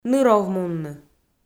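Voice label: "ныровмунны", Komi, female; audio dict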